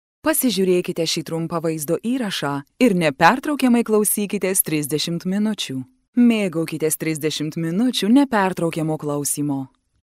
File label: Lithuanian radio ad; AMAZON